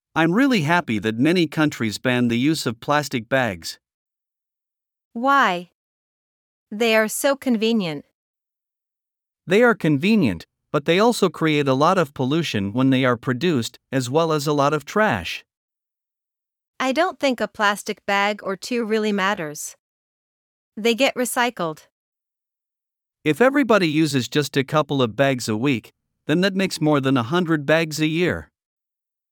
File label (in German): Dialog; Plastikverschmutzung